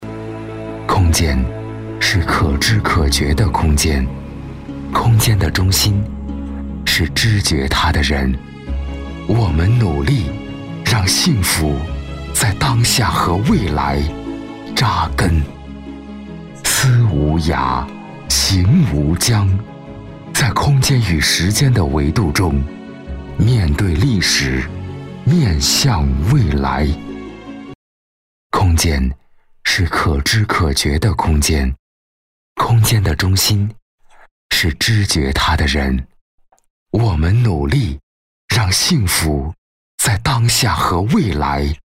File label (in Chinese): 男 宣传 走心 内敛; 中科院